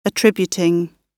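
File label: "attributing" British English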